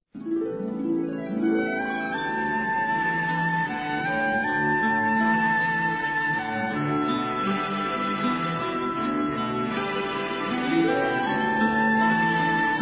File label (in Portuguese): FUTURA MAMÃE, Maternidade, masc